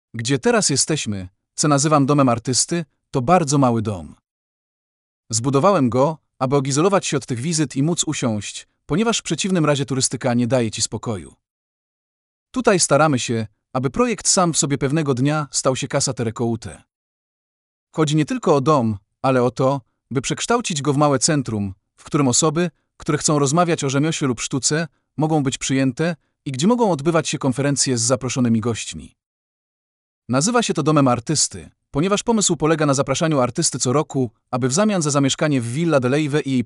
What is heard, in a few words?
POLISH